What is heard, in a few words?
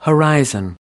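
"horizon", American English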